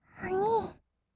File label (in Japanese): おにぃ, kawaii voice; ASMR; 二人称; 1200 kawaii voice!!